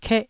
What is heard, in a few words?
"keh1", taiwanese syllables